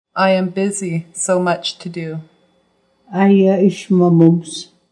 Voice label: I am busy so much to do, Nuu-chah-nulth